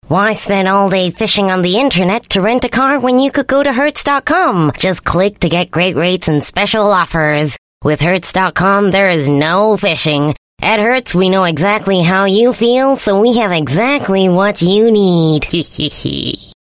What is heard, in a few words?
Asian English Character Read, English Singaporean Female